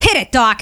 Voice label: scout activate charge, fem scout